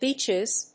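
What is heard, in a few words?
"beeches", English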